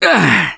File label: Hurt Minor, russian; Gambler